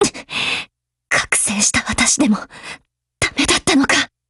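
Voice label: BREAK, Japanese; GF Alarm; M4A1Mod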